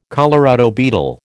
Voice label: "Colorado beetle", American

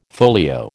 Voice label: "folio", American

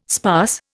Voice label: "sparse", Indian

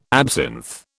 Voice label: "absinth", British

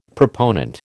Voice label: "proponent", American

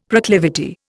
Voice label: "proclivity", Indian; Dictionary

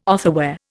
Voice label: "otherwhere", Indian

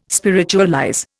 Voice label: "spiritualize", Indian

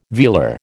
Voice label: "velar", American

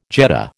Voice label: "jet", American

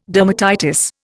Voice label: "dermatitis", Indian

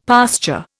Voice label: "pasture", Indian; Dictionary

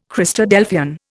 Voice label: "Christadelphian", Indian

